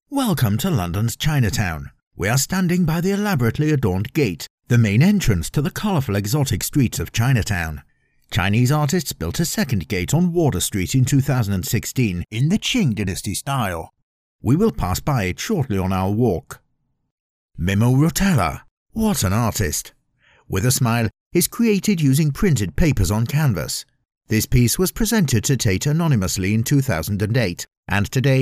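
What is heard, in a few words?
English male; lektoring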